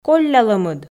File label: "коллялӧмыд", Komi